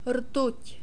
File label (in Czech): rtut, czech